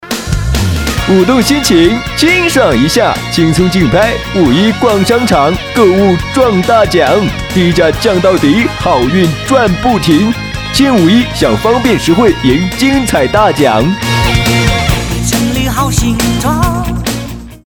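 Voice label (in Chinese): 促销商场活动开门大吉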